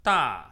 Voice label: "大", Chinese